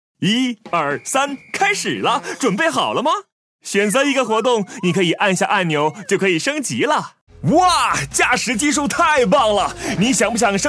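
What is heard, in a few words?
Mandarin, Toys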